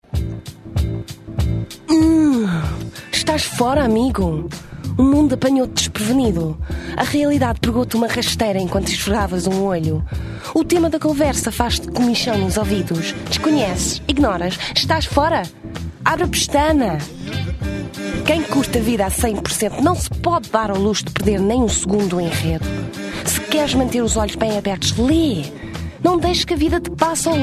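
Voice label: Portuguese; Male, 20s-30s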